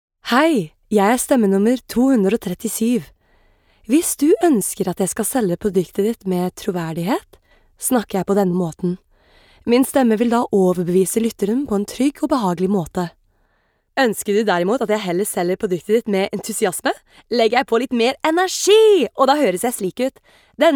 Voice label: Norwegian Language